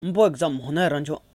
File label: Umbo exam nia ra cho., Lotha